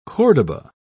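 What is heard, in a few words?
"cordoba", British English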